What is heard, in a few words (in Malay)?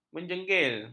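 "menjegil", Malay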